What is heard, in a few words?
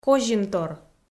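"козинтор" Komi, female; audio dict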